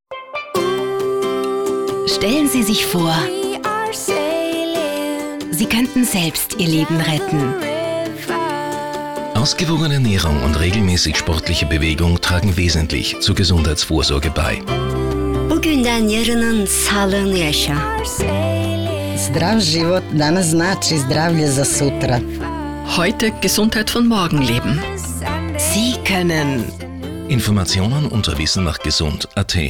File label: Spot